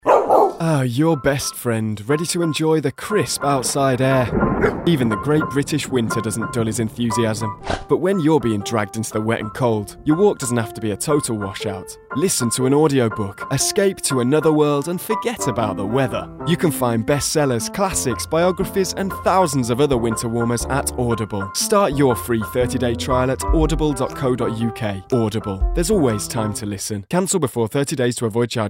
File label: Radio